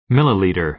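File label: "milliliter", American English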